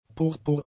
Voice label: "purpur", German; adjectives